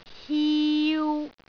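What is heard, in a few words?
"hiu3", Cantonese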